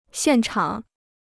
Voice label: "现场", Chinese

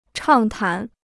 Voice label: "畅谈", Chinese

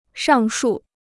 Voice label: "上述", Chinese

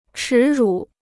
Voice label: "耻辱", Chinese

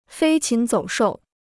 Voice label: "飞禽走兽", Chinese

